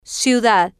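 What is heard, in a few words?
"ciudad", Spanish, female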